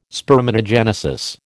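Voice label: "spermatogenesis", American English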